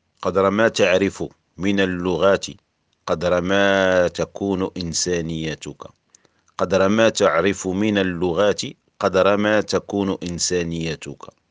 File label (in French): audio arabe